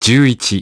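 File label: "ten one", English; iOS 4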